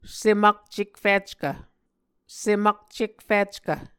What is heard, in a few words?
"sem akcekfickv", Muscogee